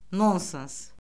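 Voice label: "nonsens", Azerbaijani; Orfoqrafiya Lüğəti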